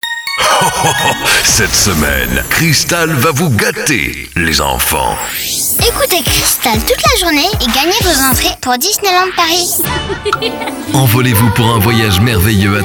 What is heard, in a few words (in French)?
Promo; JEUX ENFANTS